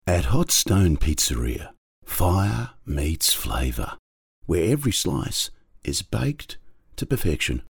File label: Laidback Sell